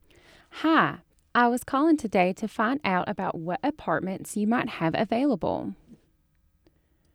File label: Southern